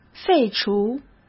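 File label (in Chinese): "废除", Mandarin Chinese; hsk; chinese-flashcards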